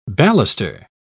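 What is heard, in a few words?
"baluster", British English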